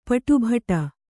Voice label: "ಪಾಟುಬಡು", Kannada